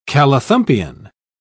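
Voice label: "callithumpian", British English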